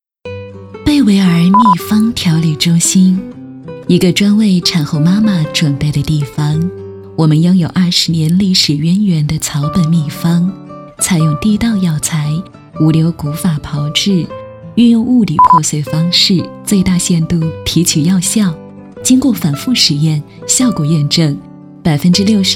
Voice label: 女 品质广告; 贝维儿秘方调理中心

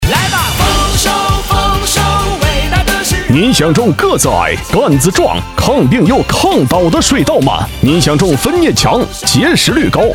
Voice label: 男 广告 水稻种子

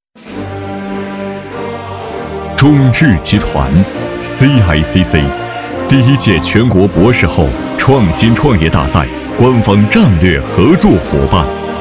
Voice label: 男 宣传片 大会宣传片

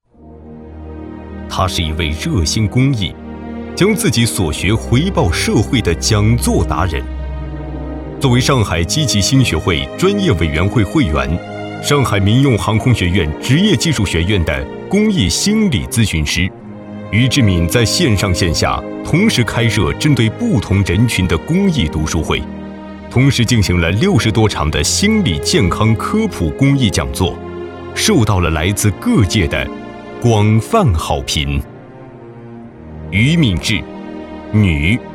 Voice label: 男, 颁奖类

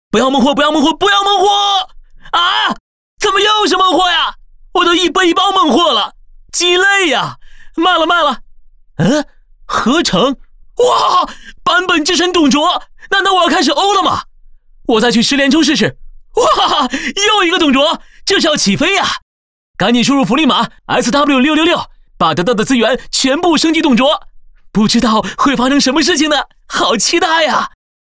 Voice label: 男, 信息流短视频

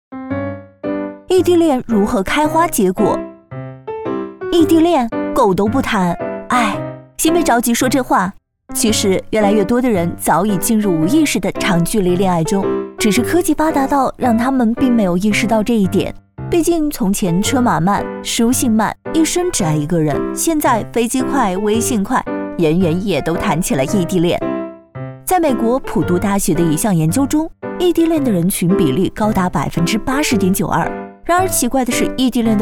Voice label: 女 方言 幽默 港台风

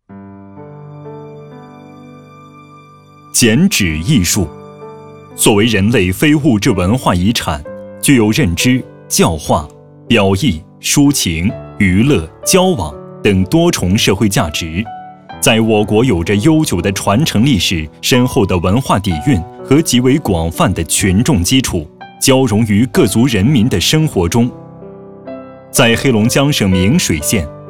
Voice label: B男 纪录片 剪纸

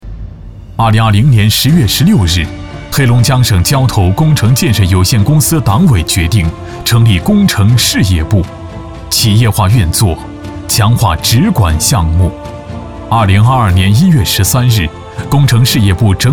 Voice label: 男 工程 汇报 有力; 黑龙江

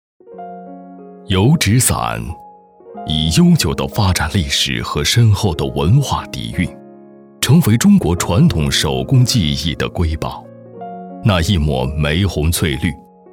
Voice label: 男 纪录片

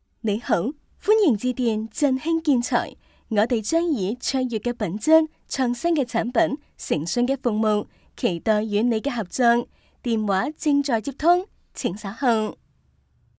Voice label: 女, 粤语彩铃

